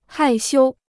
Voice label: "害羞", Chinese

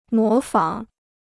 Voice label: "模仿", Chinese

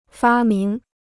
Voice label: "发明", Chinese

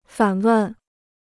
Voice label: "反问", Chinese

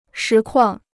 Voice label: "实况", Chinese

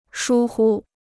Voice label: "疏忽", Chinese

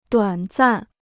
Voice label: "短暂", Chinese

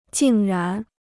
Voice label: "竟然", Chinese

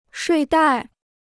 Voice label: "睡袋", Chinese

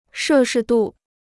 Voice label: "摄氏度", Chinese

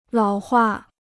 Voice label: "老化", Chinese

